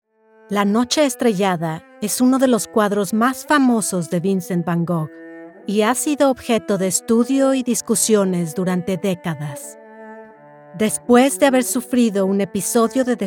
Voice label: E-Learning, bilingual female; Mexican female VoiceOver artist and actor